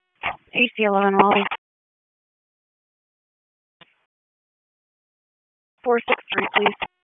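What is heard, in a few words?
Raleigh Police Southeast District Dispatch, p25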